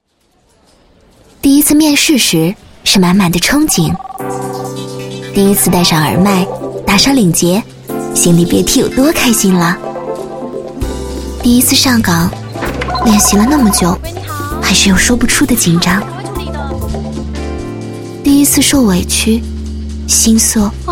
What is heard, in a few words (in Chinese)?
女19 旁白 微电影 自然说话式, 国语女声配音; 第一次面试